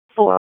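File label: Police Northeast District Disp, radio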